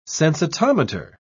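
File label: "sensitometer", British English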